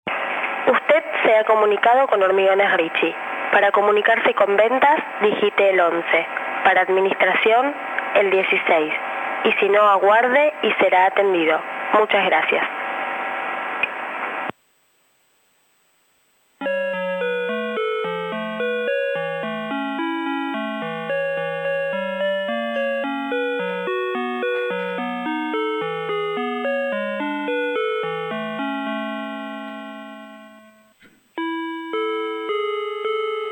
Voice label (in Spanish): mensajes telefonicos